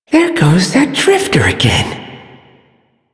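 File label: Male Sedentary; Warframe; Duviri; Duviri Ambient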